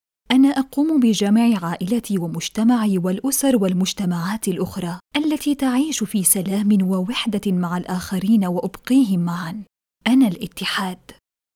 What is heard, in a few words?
I Am Unity, Arabic Female